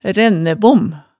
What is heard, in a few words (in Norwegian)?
"rænnæbom", Hemsedal